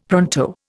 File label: "pronto", Indian; Dictionary